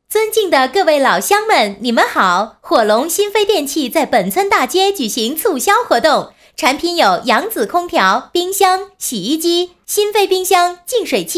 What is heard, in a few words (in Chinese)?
女 促销, 国语女声配音; 火龙新飞电器